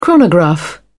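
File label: "chronograph", British English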